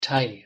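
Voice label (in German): "thai", German; pronunciation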